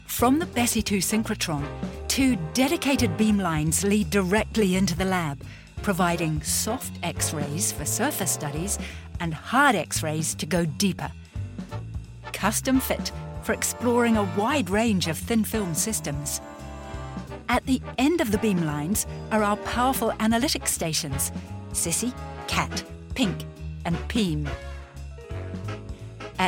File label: technical fun lively; Muttersprache Englisch